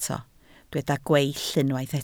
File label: "gweill", Welsh